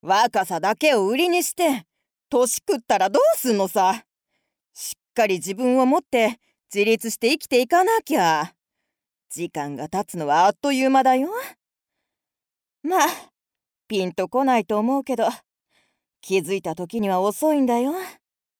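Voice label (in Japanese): 女性 ロリ 元気; おばさん; アトリエピーチ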